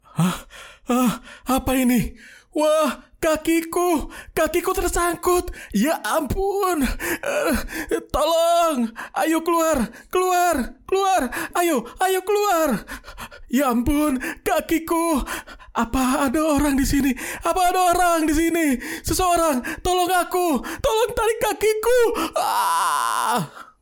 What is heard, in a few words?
KARAKTER INDONESIA